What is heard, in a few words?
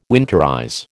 "winterize", American